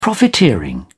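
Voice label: "profiteering", British English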